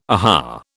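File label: "aha", British English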